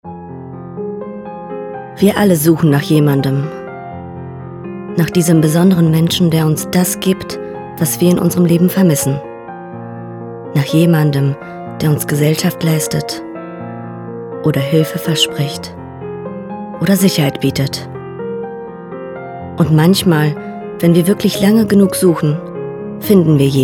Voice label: Voiceover